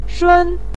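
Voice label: "shun" neutral tone, Mandarin Chinese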